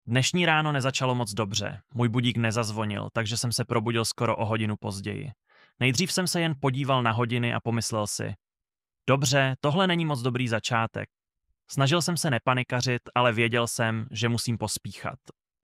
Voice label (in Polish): czeski